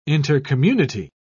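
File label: "intercommunity", English, British English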